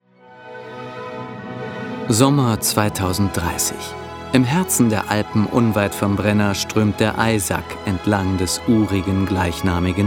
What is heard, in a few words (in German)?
Offstimme